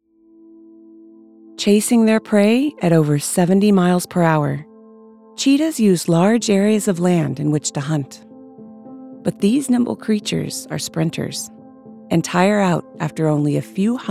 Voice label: us documentary

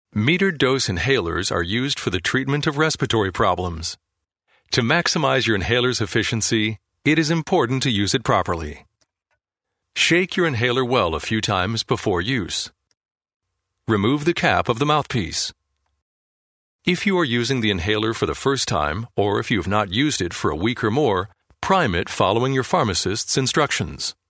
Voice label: us elearning

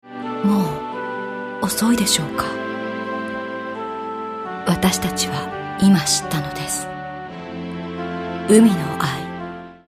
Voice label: Japanese soft, female japanese

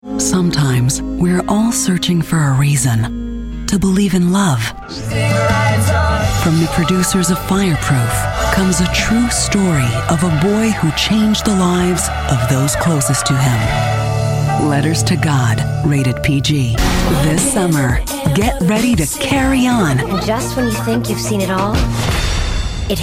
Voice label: US commercial deep

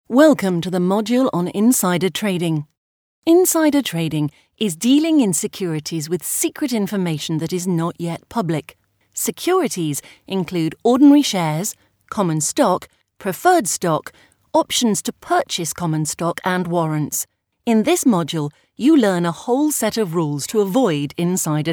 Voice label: uk elearning

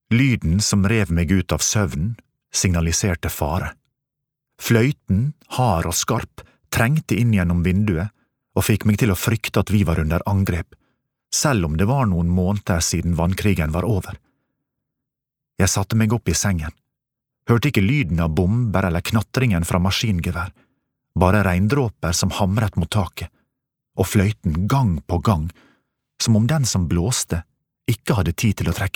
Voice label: no audiobook sunnmorsk

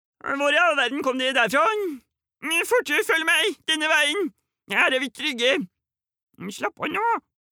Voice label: dub, character

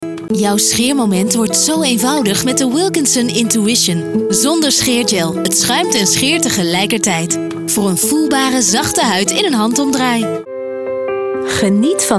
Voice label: nl warm

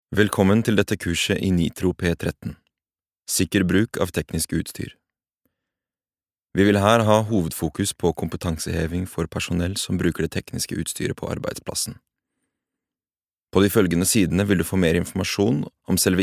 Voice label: no elearning

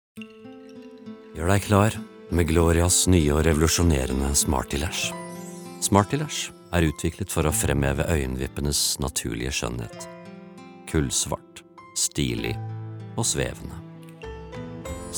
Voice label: no soft sell

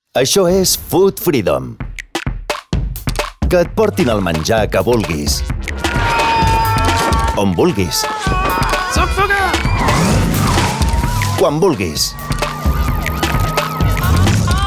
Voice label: es catalan commercial

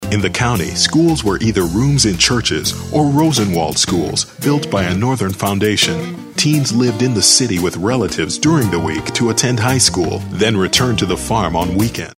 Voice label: us narration

